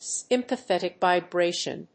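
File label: "sympathetic vibration", English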